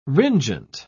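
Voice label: "ringent", British English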